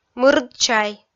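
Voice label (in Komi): "мырд чай", Komi, female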